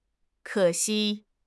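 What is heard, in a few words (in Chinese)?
"ke3 xi1", Chinese; HSK; HSK4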